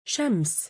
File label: female name "shams", Arabic